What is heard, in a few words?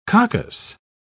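"coccus", British English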